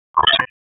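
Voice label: Police Southwest District Tac, radio; wake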